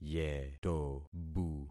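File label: "yetobu", concatenated syllables; Affixing experiment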